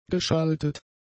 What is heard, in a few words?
"geschaltet", German; adjectives